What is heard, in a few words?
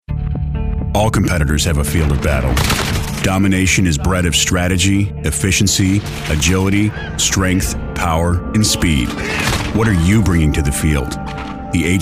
Commercial